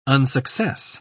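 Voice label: "unsuccess", British English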